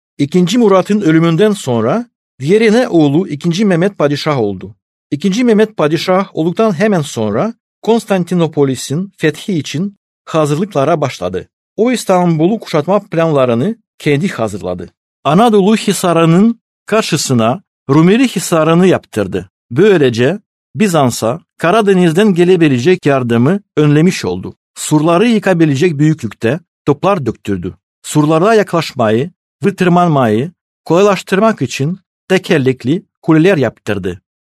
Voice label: turkey male